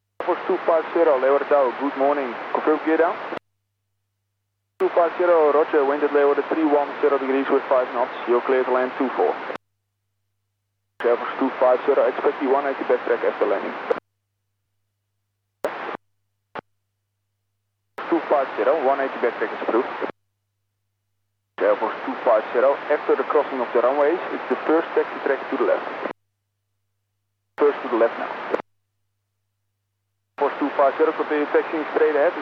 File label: polish airforce arrival